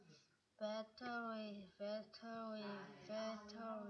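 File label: "battery", spoken word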